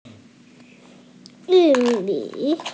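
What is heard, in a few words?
"yu4 mi3", Mandarin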